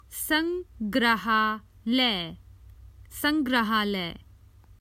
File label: Common Hindi Phrases for travel The museum